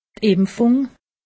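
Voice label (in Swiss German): "impfung", Alsatian; medecine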